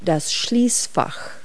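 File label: "locker", English word; Multi-Lingual Talking Picture Dictionary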